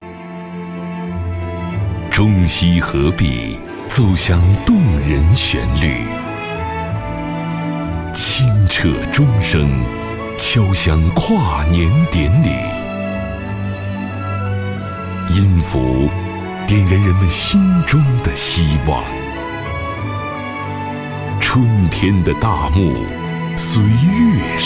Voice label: 男 宣传片 CCTV3 音乐宣传片

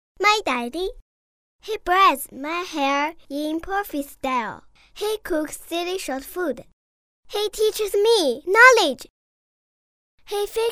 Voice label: 女, 真女童声, 英语, 父亲节

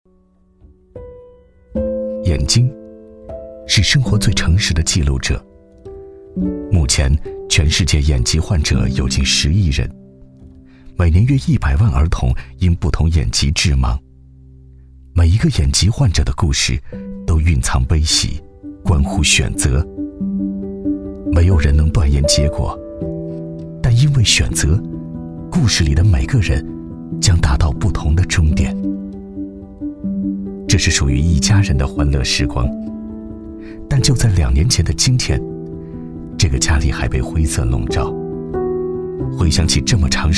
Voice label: 男 微电影 娓娓道来; 眼疾患者的求医纪实

